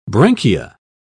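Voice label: "branchia", British English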